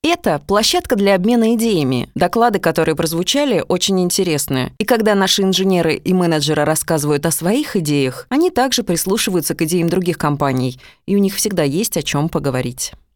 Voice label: prezent rus